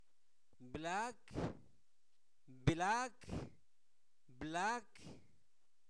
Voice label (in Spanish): cuánto, Zapoteco, santo domingo albarradas; INALI; preguntas